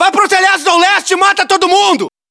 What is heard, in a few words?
hostile burst, battlechatter